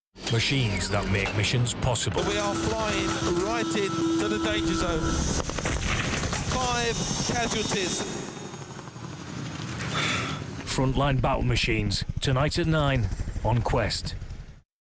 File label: PROMO Authoritative Stern; Frontline Battle Machines; Informative